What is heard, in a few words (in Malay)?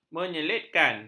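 "menyelitkan", Malay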